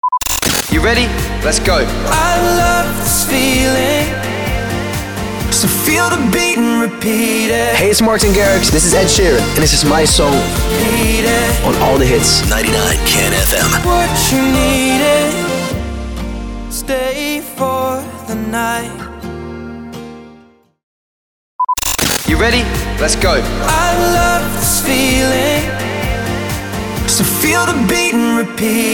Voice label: SWEEPER, POWER INTRO, 128BPM